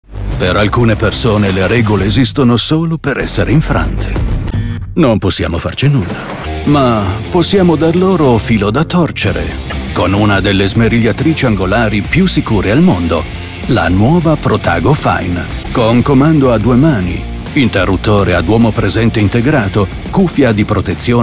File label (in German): Produktfilm; FEIN Protago